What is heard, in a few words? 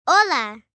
Spanish Hello, Greetings, 1 girl, 9 yrs., Spanish; Talking Sound Effects; Sound Effects:Voice; VoiceBox